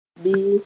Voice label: "bɩ", Mooré; wish